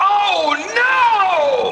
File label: "oh no", male, scratch; ooh ahh